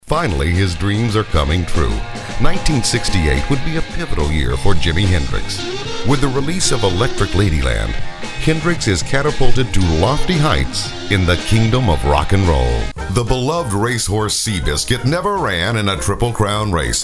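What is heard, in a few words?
narration, male voice talent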